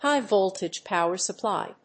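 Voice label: "HIGH VOLTAGE POWER SUPPLY", English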